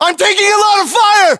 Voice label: US inform suppressed generic, battlechatter, english